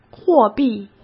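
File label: "货币", Mandarin Chinese; chinese-flashcards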